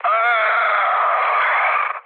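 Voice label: Hungarian damaged pilot mood high, speech; War Thunder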